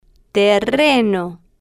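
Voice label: "terreno", slow, spanish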